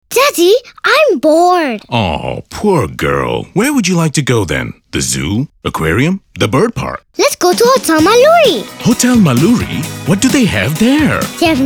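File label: English; Hotel Maluri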